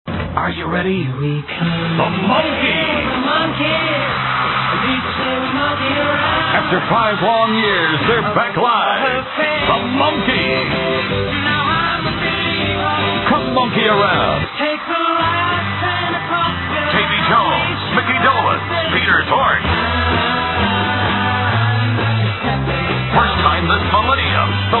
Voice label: Tour Promo